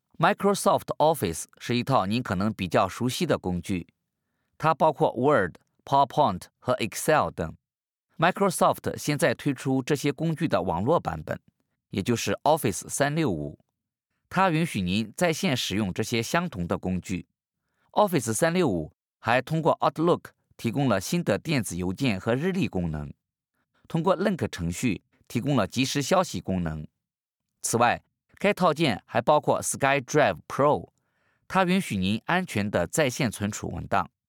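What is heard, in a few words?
chinese mandarin male e-learning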